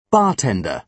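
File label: "bartender", British English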